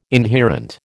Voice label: "inherent", American